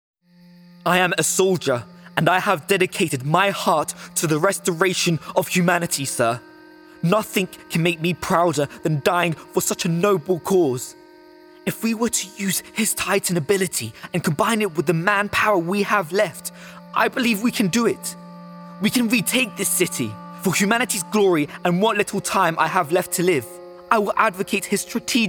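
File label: British English Animation; voice demos; GoLocalise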